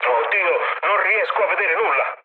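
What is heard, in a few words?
Italian searchlite mood low, speech; War Thunder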